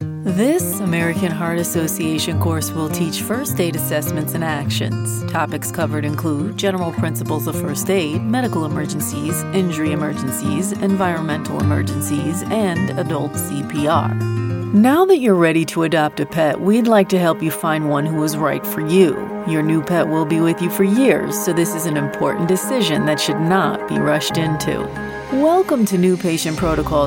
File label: VO eLearning